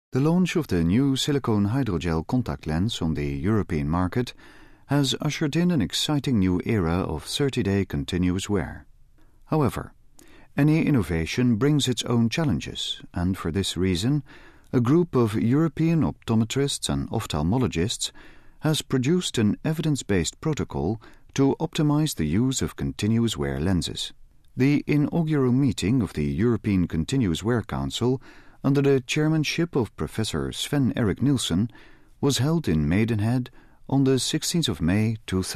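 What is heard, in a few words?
Dutch English; Dutch, European, Male, Home Studio, 20s-30s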